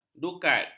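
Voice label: "dukat", Malay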